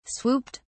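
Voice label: "swooped", British English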